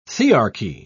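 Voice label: "thearchy", British English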